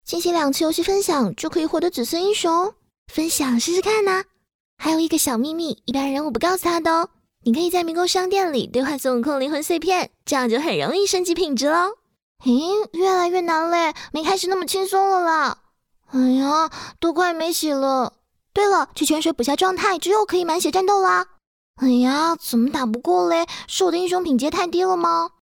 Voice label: 台湾腔可爱风游戏宣传

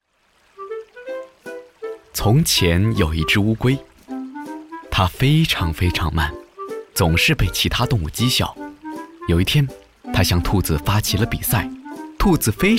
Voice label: 寓言故事龟兔赛跑

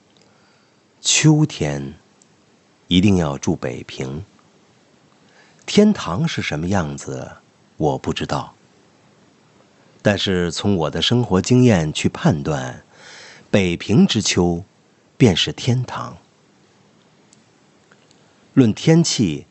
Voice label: 朗诵散文; 秋天一定要住在北平; 老舍

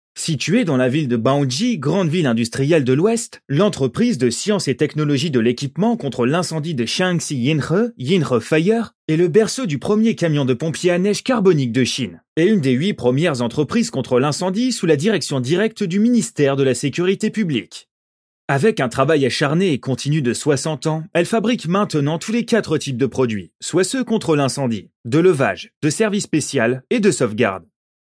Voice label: 法语男大气公司宣传片

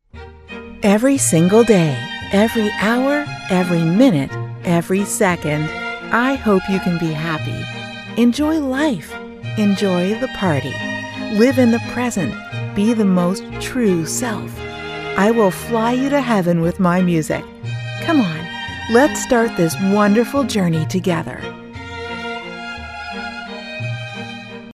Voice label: 美式 轻松欢快; 艾拉

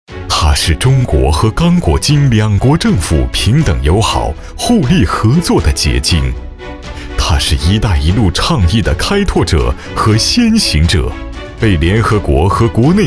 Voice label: 大气宣传; 大气宣传-华刚矿业

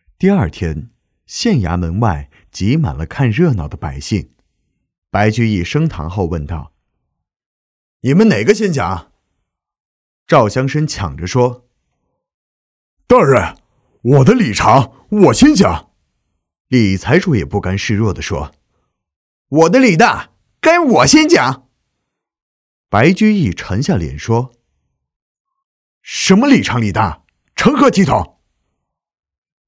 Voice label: 角色衙门男干音

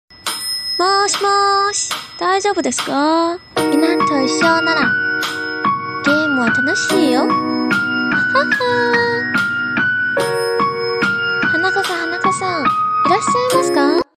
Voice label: 日语动漫

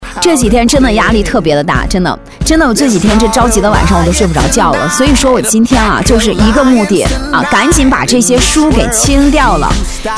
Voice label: 激情直播书籍类

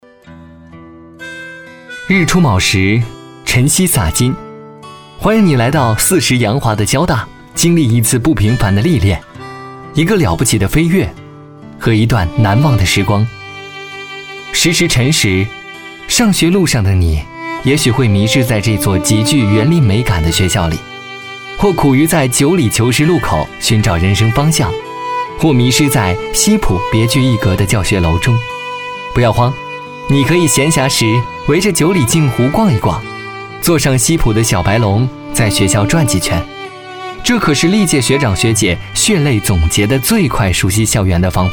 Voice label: 年轻解说; 上海交大

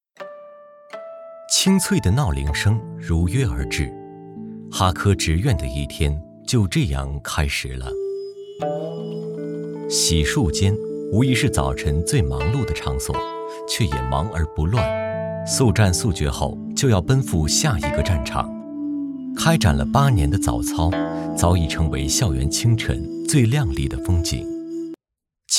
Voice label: 温暖舒适纪录片

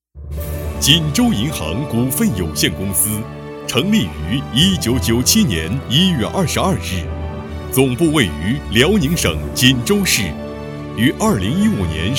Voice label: 专题 大气企业

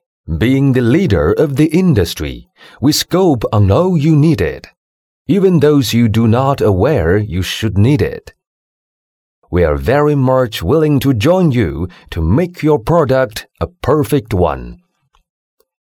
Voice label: 英文; 宝丰堂

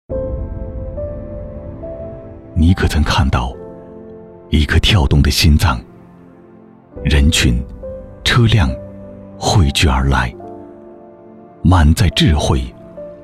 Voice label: 广告地产大气

